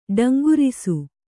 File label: "ಠೇಂಕರಿಸು", Kannada